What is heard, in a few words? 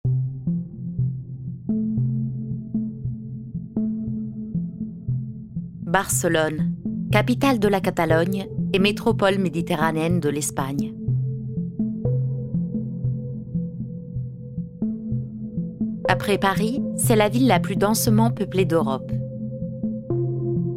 french documentaries